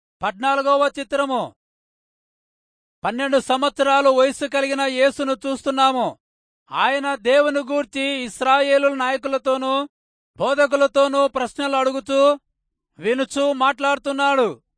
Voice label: telugu rayalseema; good news

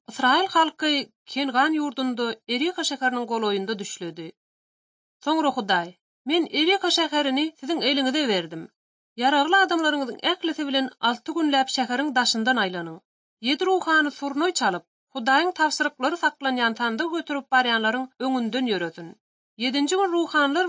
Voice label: turkmen, ot drama; institute for bible translation; servants of god

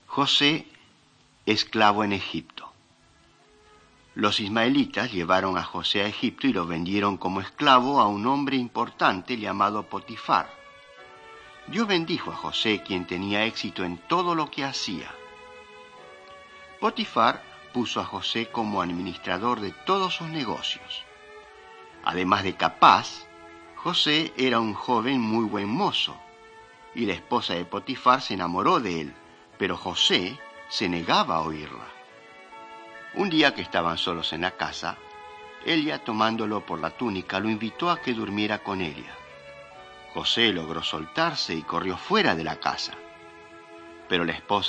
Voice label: mighty men of god spanish latin america

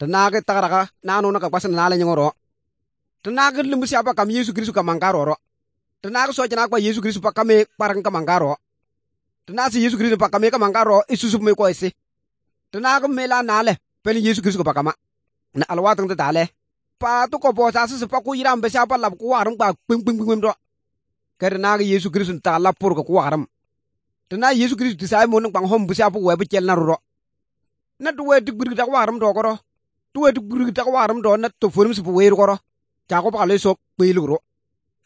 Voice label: good news, kabiye bible

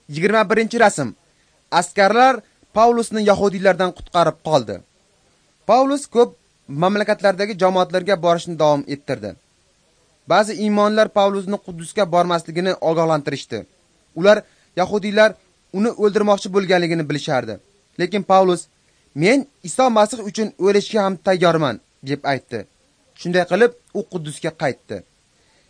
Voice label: uzbek northern; acts of the holy spirit

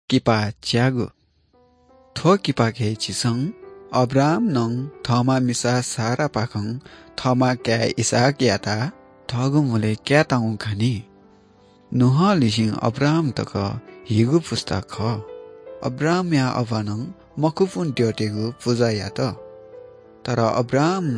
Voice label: good news newari bhaktapur; baalaangu khaan; bible

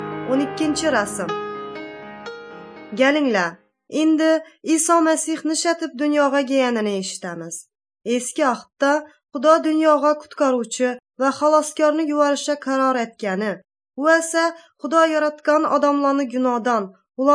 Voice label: uzbek northern kipchak; good news

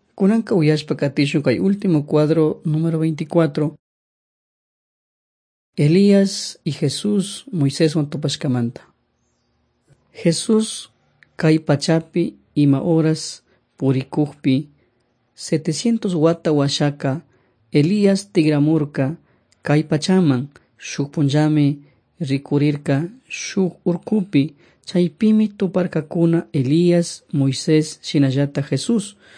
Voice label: servants of god quichua chimborazo

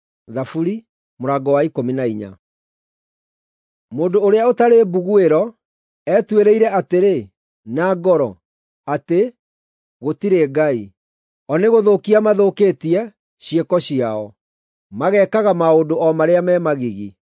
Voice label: psalms, gikuyu drama

